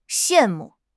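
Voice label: "xian4 mu4", Mandarin Chinese; HSK4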